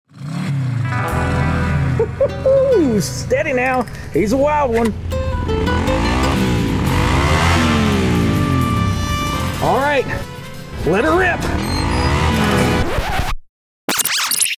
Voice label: rodeo radio motorcycle spot